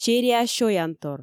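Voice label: "чериа сёянтор" Komi, female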